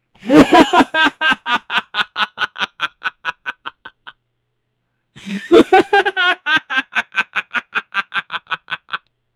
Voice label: 23 year old african, person